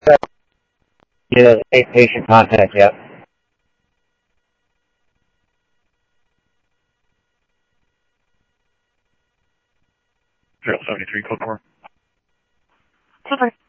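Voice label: Sheriff Lake Patrol, scanner audio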